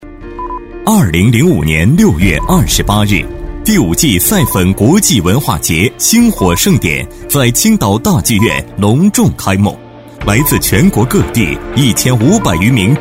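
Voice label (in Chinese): 专题, 男; 青岛赛粉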